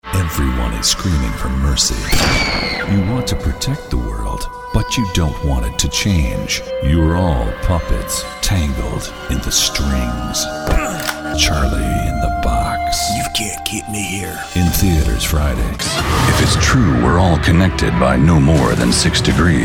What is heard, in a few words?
Movie Trailer